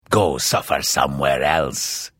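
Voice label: Hello, Vo; Morrowind GOTY GOG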